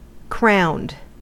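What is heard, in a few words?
"crowned", American English; pronunciations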